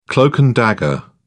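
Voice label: "cloak-and-dagger", British English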